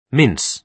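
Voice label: "mince", British English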